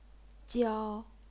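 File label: "jiao", Chinese, first tone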